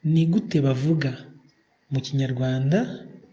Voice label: how to say, kinyarwanda